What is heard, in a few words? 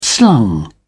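"slung", English, British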